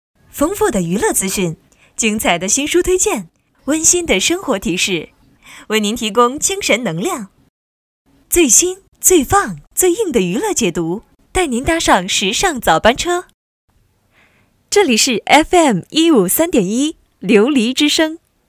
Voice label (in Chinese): 电台片花干声